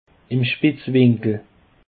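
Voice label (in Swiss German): "spetzwinkel", Bas Rhin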